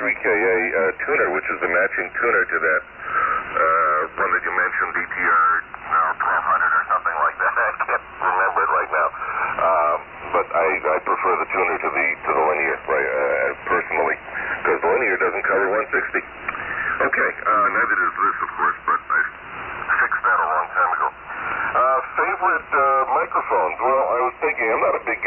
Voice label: Signal One CX-7 Audio Technica ATM-31A & Henry Amp; Kenosha, Wi.